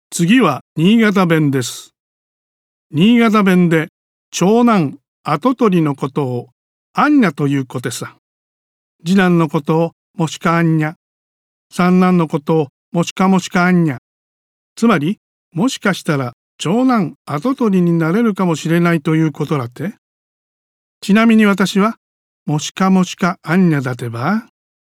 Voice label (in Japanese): 新潟弁